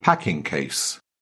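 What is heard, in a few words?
"packing case", British English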